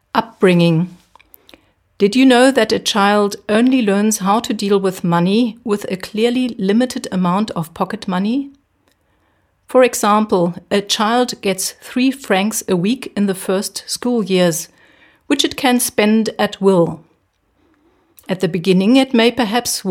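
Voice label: English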